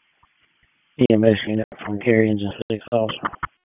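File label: Public Safety Tactical, p25; wake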